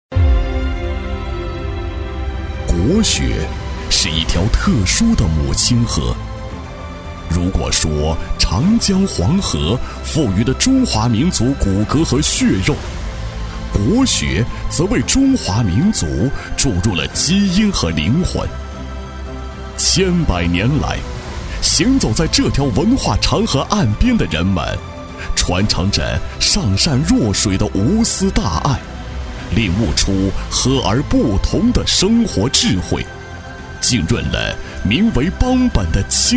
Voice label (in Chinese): 男国 专题 学校 厚重; 红日国学院